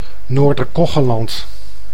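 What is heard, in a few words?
"Noorder-Koggenland", Dutch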